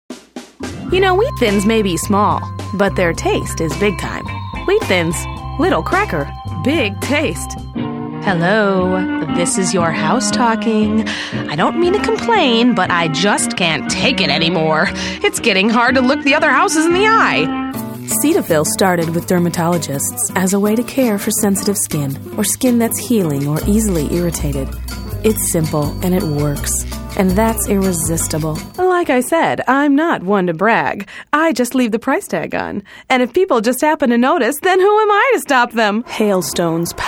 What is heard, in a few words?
commercial